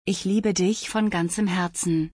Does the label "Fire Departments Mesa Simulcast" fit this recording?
No